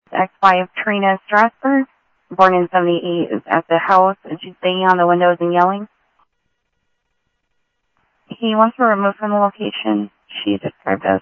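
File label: Sheriff West County, scanner audio trunk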